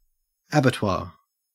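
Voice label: "abattoir" Australian English; pronunciation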